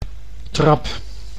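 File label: "trap", Dutch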